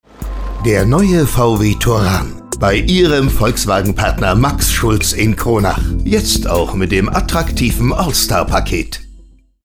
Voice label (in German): Funkwerbung